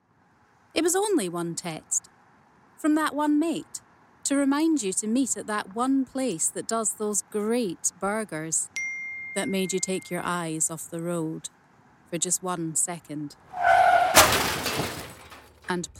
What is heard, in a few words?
Commercial; London Transport